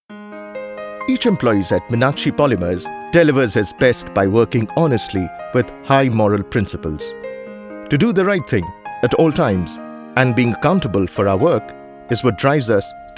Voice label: Explainer English

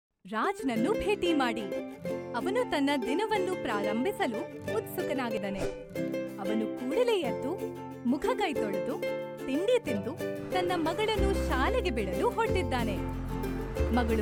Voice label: training kannada; uber

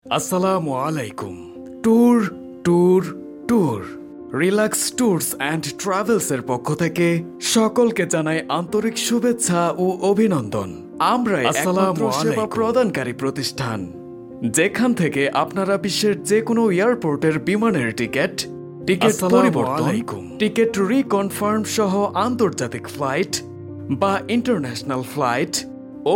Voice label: bangla

